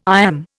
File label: "iamb", Indian